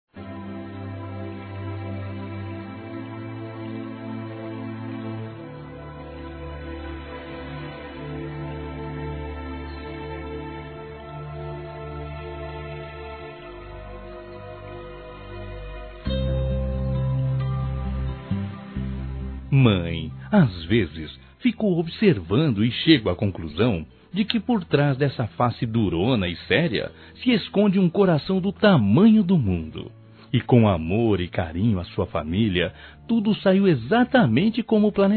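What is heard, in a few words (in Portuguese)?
MINHA MÃE, Masculino; Dia das Mães; Telemensagens 2017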